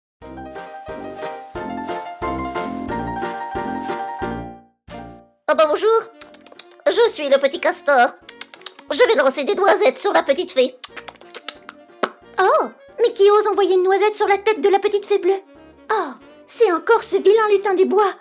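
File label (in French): Voix Dessins animés; VOIX NARRATION & CARTOONS; castor malin; la rose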